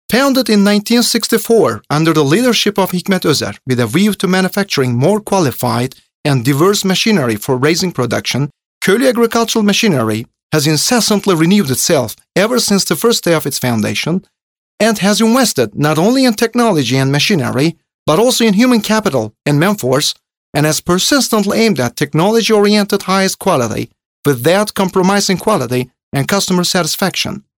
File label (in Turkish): İNGİLİZCE